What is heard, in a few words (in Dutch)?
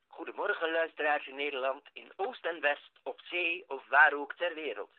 Broadcast Stemacteren, Voice-over